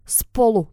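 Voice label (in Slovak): "spolu", Slovak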